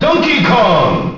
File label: announcer; dk; ssbm